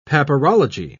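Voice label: "papyrology", British English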